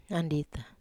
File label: "andita", Wamesa